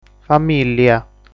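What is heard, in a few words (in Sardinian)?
"Famìllia", Sardinian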